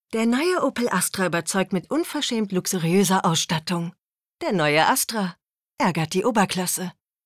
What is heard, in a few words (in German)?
werbung